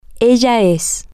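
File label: female, spanish; she is permanent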